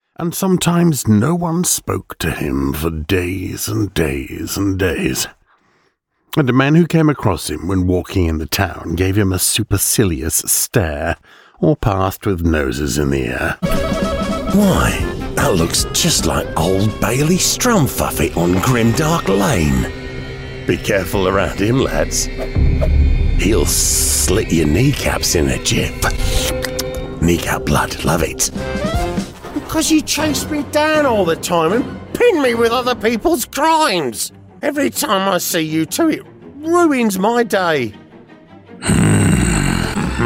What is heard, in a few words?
English British animation